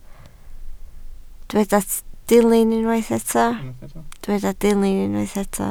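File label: "dilyn" Welsh, spoken word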